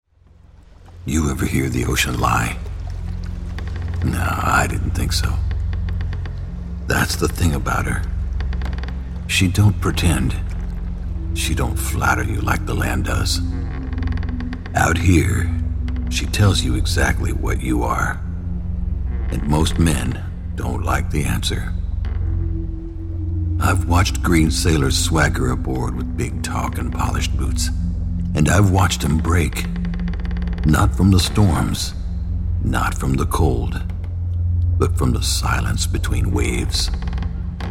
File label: Video Game Drunken Sea Captain, Older Male Voice Over; Video Games; Rough Sea Captain